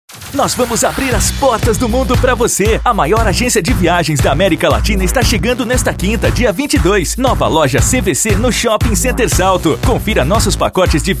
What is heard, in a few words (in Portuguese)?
spot inauguração; cvc; salto